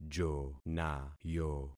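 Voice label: "jonayo", concatenated syllables; Affixing experiment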